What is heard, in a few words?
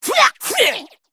Female Sporeling Attack